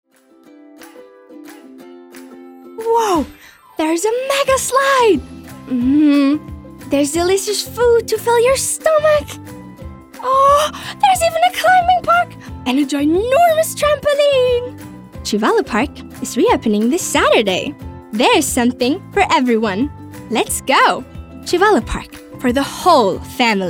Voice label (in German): Radiowerbung EN; Trivallo